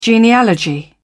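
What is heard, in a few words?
"genealogy", British English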